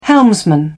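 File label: "helmsman", British English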